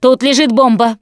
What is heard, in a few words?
spot grenades, russian, Survivor Voice Producer